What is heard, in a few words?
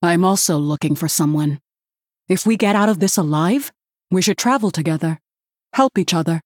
Female Human; Cariel; Kurtrus